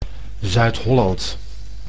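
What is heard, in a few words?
"Zuid-Holland", Dutch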